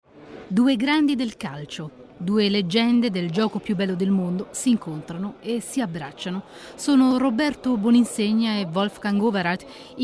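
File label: it, Italian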